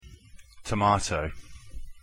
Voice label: "tomato", English